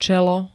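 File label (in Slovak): "čelo", Slovak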